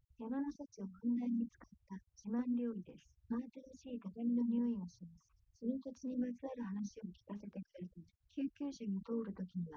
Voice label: female liverec 130ms 5cm sim